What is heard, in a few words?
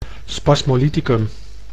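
"spasmolyticum", Dutch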